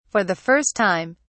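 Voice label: "for the first time", British English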